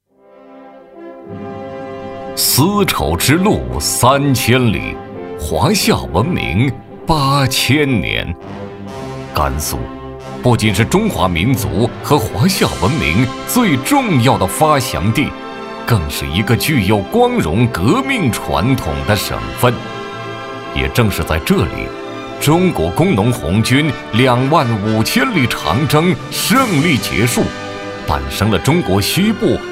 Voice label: 男100号-专题-纪念长征胜利 恢弘 大气, 国语男声配音

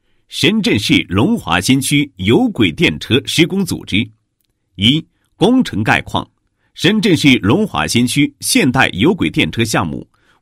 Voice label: 男 工程解说 有轨电车施工组织, 高音; 龙华新区; 国语男声配音

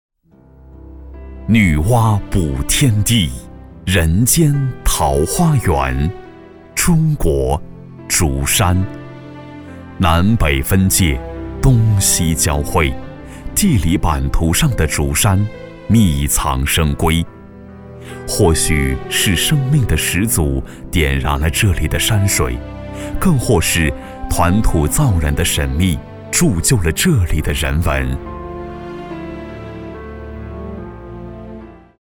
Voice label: 男 地区宣传; 竹山

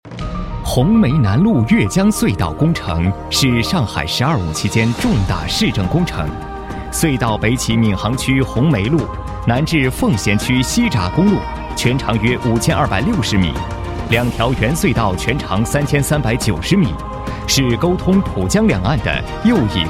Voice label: 男 工程; 梅南路越江隧道工程

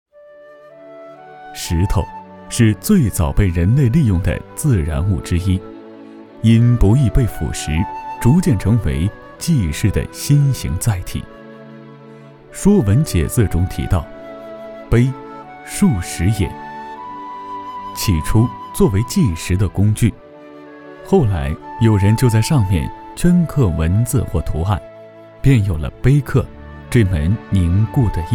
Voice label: 男 纪录片 碑刻 讲述感觉

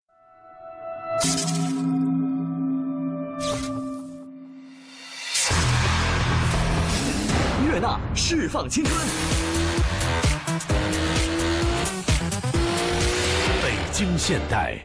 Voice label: 男 酷; 北京现代

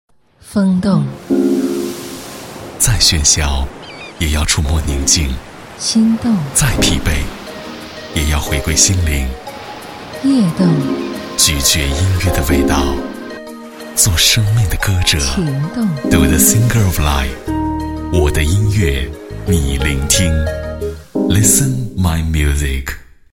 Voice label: 男 电台, 国语男声配音

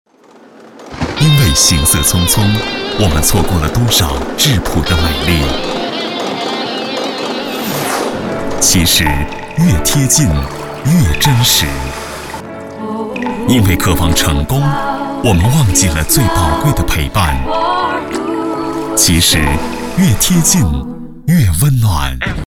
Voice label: 男 电台片花 亲和 广告招商

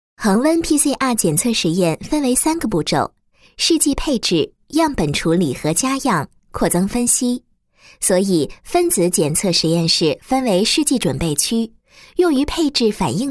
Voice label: 女19 解说 操作 流程, 国语女声配音; 恒温PCR检测实验分为三个步骤

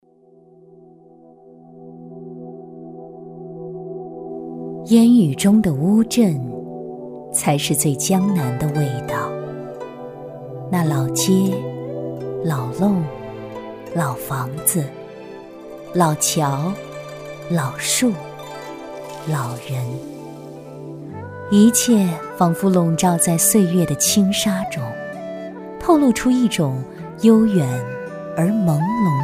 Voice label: 女 旁白 朦胧悠扬江南风

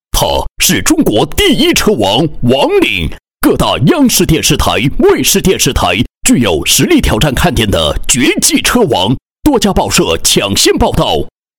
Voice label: 男, 人物介绍 豪迈大气喊口号; 车王王某

